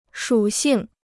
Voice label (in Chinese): "属性", Chinese